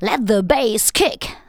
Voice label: "LET THE BASS KICK", SPOKEN; Techno Trance Essentials